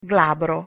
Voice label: "glabro" Italian